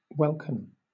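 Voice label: "welkin" English, British; pronunciations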